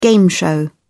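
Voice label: "game show", English, British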